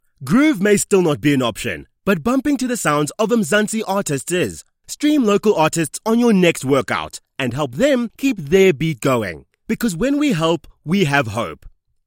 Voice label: English South African commercial; Inglés (sudafricano); locutor y productor